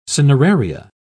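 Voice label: "cineraria", British English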